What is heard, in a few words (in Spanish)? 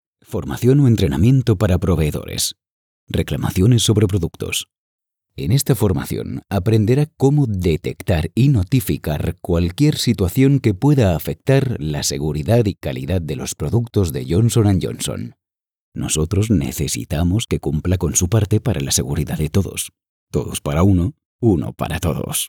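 e-learning, Spain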